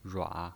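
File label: "rua", Mandarin Chinese pinyin voice, third tone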